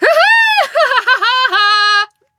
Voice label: attack, human woman fight; Stalker Anomaly

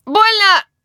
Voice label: help, human woman wounded heavy; Stalker Anomaly

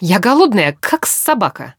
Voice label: idle, characters voice human woman; Stalker Anomaly

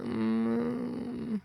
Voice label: sleep, woman; Stalker Anomaly; characters voice human states

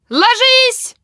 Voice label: grenade, human woman fight; Stalker Anomaly

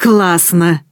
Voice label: reaction music, woman

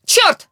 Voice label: enemy, characters voice human woman fight

